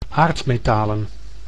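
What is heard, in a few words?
"aardmetalen", Dutch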